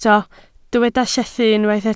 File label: "llethu", Welsh